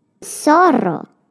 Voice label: "zorro", fox

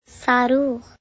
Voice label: "rocket", Arabic

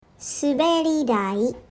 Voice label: "slide", Japanese

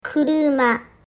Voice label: "car", Japanese

